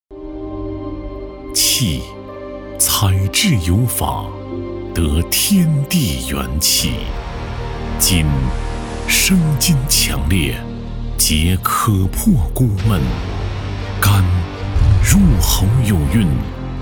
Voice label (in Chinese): 男 广告; 今大福